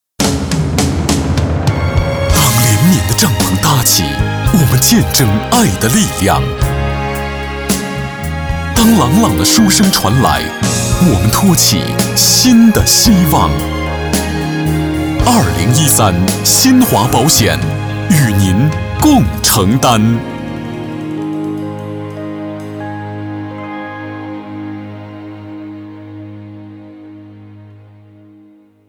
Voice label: 国语男 保险公益类; 新华保险

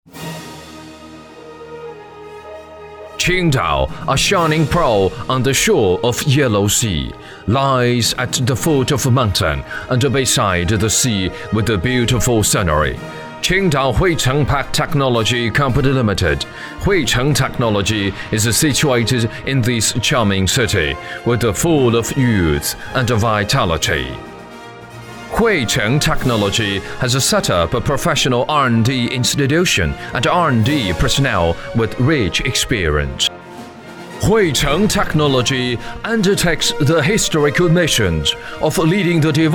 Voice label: 英语男, 专题恢弘; 慧城

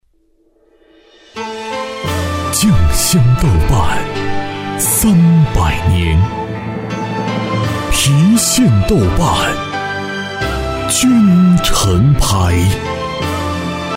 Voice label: 国语男 广告; 郫县豆瓣

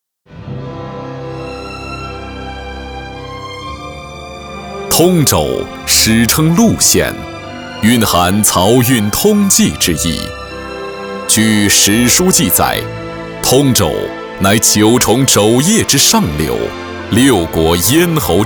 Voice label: 国语男, 大气宣传类; 通州规划

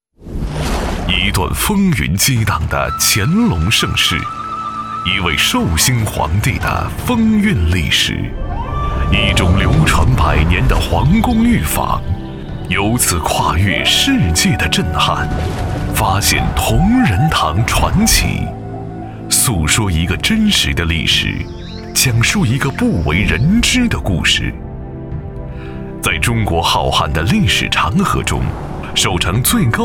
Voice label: 国语男 企业宣传; 同仁堂

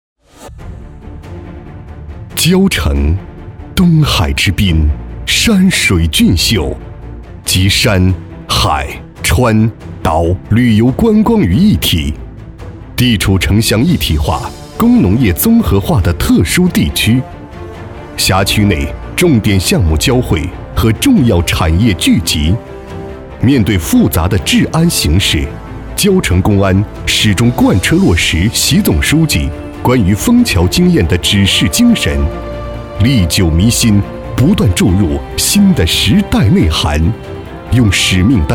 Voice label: 国语男-公安

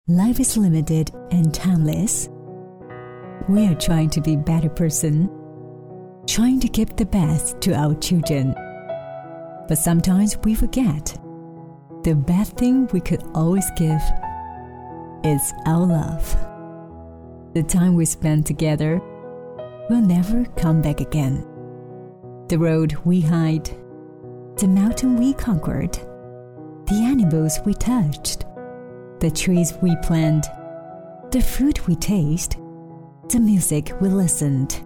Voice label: 英语女 感性温馨英文广告

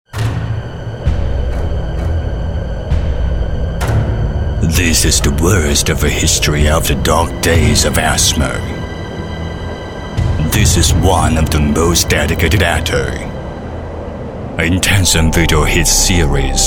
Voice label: 英语男, 大型游戏震撼预告片; 《哮天犬》

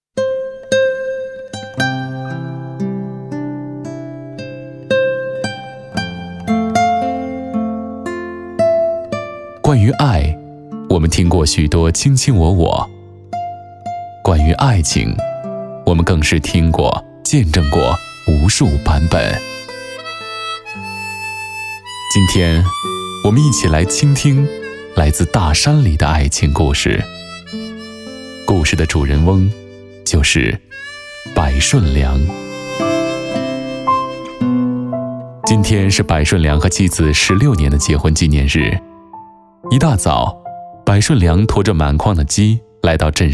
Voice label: 国语男 温情故事类

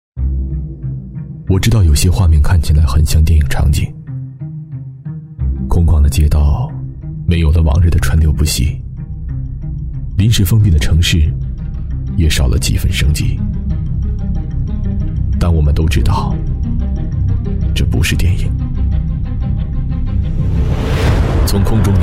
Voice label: 国语男 疫情防控类旁白 史诗恢弘; 这座城

